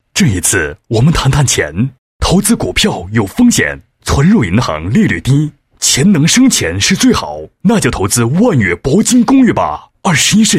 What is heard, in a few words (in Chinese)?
男, 房地产广告; 万悦铂金公寓